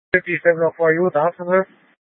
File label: Light Rail Emergency, scanner